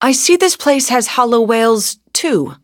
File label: touch, en; Cookie Run